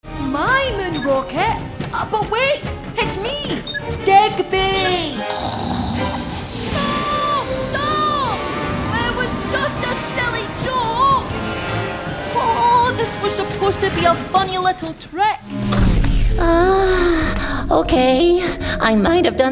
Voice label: Animation Character